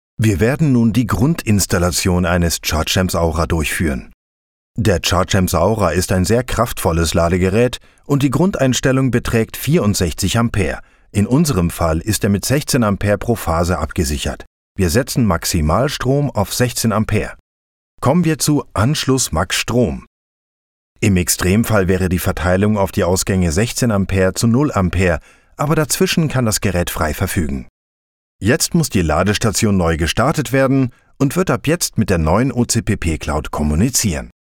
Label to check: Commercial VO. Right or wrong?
Wrong